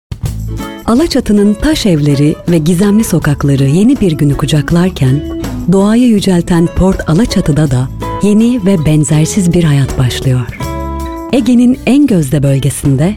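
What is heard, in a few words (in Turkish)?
tanıtım, Turkish; portal acati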